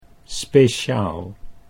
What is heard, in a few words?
"speciaal", Dutch